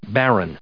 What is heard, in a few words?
"baron", en us